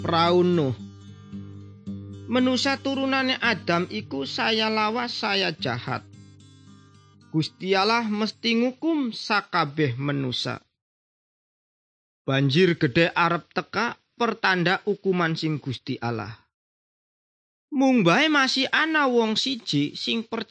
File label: good news jawa indramayu, javanese bible